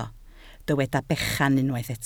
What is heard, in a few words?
"bechan", Welsh